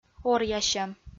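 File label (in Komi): "оръясьӧм", Komi, female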